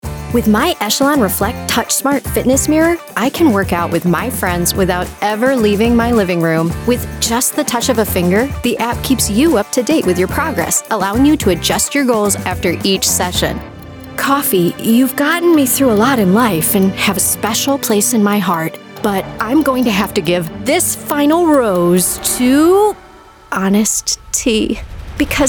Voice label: Commercial